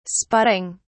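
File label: "spotting", British English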